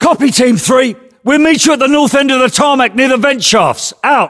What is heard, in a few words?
voiceovers, US; english